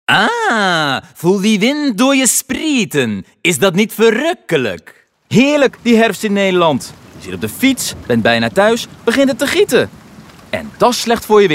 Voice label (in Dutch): Stem demo gevarieerd